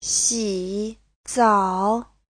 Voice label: "xi3 zao3", Chinese; 洗澡 : tắm; Giáo trình Hán ngữ